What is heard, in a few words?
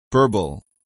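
"burble", English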